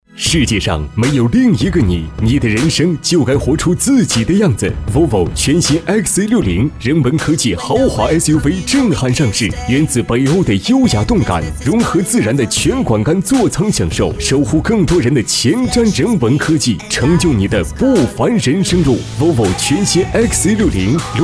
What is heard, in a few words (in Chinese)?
广告 大气阳光; 沃尔沃